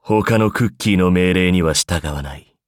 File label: upgrade, ja; cookierun